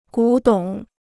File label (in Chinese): "古董", Chinese